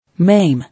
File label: "Maim", English